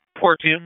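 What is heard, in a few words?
EMS DISP, radio; wake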